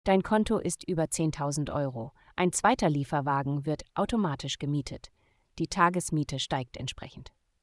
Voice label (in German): second truck rented, voice de; logistik